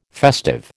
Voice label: "festive", American